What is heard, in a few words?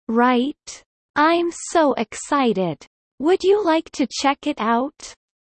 low; studying abroad